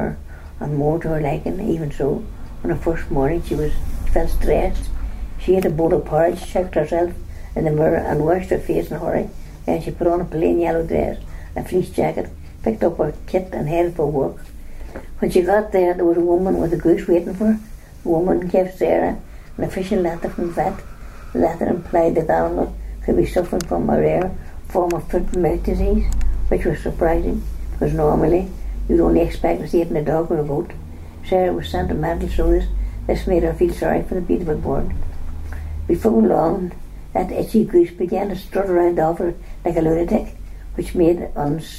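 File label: passage, Irish English, The North of Ireland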